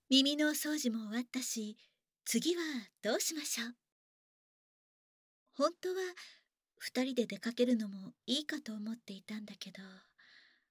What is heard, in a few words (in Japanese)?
背伸びをして眠る夜（Voice）, ASMR